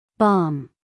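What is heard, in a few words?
"balm", American English, female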